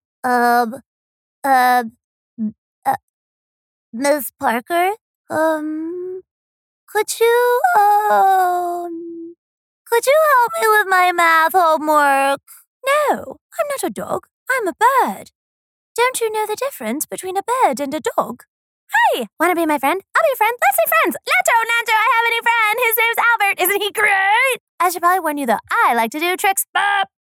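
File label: Animation, voice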